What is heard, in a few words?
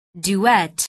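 "duet", English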